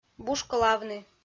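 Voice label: "бушколавны", Komi-Zyrian, female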